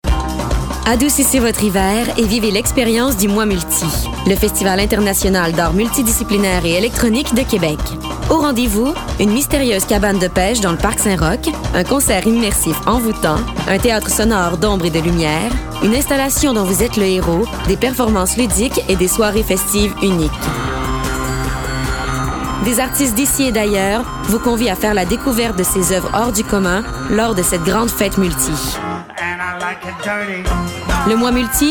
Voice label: Charmante dynamique; Mois multi

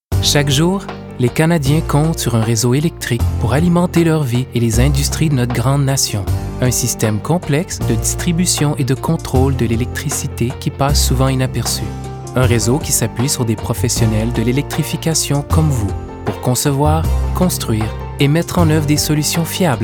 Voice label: Publicite Didactique Inspirant Qc soutenu; ABB

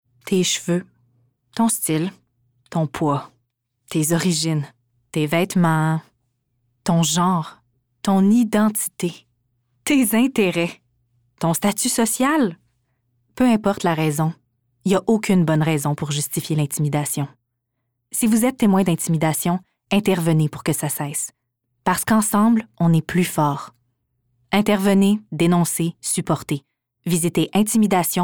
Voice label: Pubs Rassurante Sérieuse Posée, Empathique - Posée - Québécois naturel; Intimidation Gouvernement du Québec

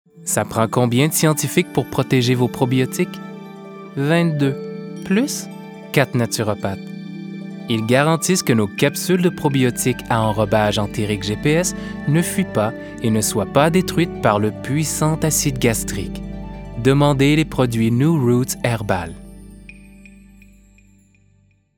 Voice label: Publicite Sympatique Calme Qc naturel; New Roots

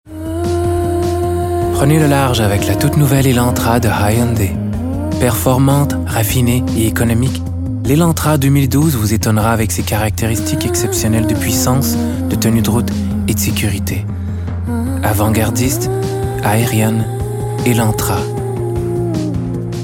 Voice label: Publicite Sensuel Prononciation impeccable Fr soutenu; Hyunday Elantra

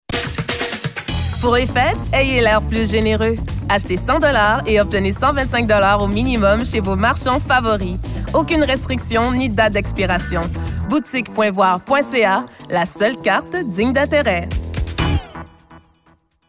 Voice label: voix dynamique, Souriante - Énergique - Québécois soutenu

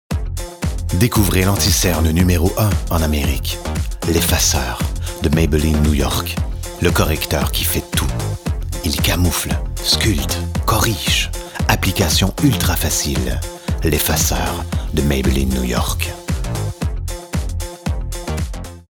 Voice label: Voix Sobre Posée Sensuelle Charmante Pub fictive; Maybelline

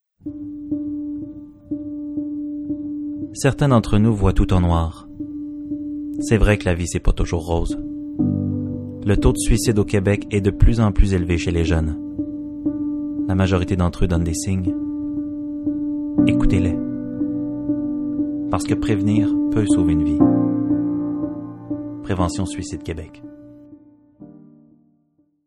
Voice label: voix naturelle ton calme; Prévention du suicide

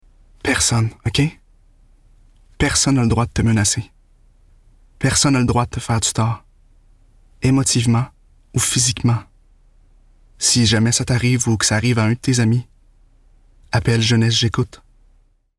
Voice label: Qc relâché Voix sincère posée intime; Jeunesse Jécoute

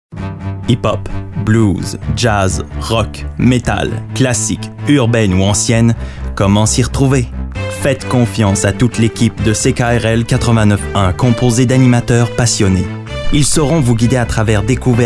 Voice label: voix d'annonceur assuré et complice; CKRL

